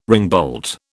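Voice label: "ringbolt", British English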